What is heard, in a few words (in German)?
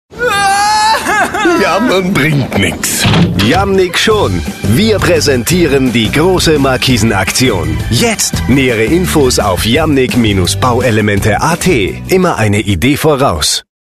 werbung, de-at